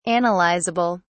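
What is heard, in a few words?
"analysable", British English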